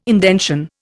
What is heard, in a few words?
"indention", Indian